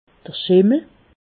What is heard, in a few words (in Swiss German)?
"schimmel", alsace